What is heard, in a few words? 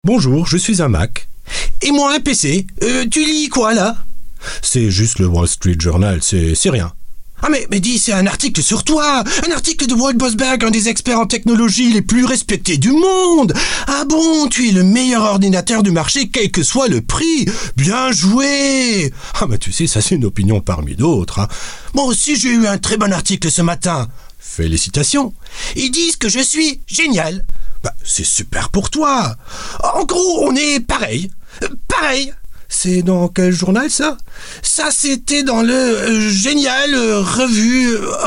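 French advert; sprecher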